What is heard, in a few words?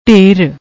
"dher", Punjabi; ਢੇਰ